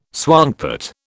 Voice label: "swankpot", British